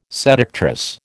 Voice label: "seductress", American

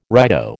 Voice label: "righto", American

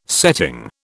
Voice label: "setting", British

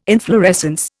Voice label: "inflorescence", Indian

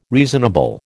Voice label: "reasonable", American

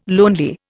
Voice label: "lonely", Indian

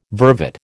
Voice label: "vervet", American

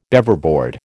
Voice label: "Beaverboard", American

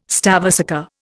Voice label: "stavesacre", Indian

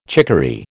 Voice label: "chicory", American

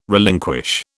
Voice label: "relinquish", British

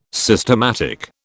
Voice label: "systematic", British

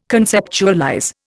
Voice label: "conceptualize", Indian

